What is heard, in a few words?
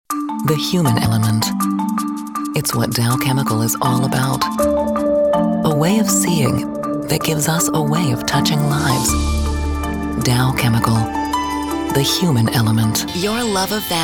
Commercial Female; commercial voiceover